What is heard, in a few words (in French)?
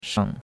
"sheng3", chinois; Cours-de-Chinois